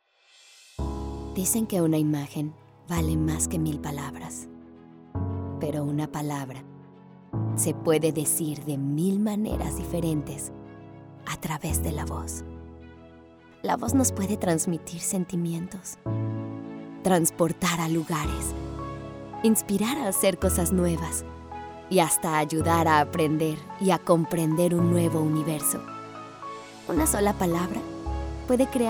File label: Spanish; spanish demo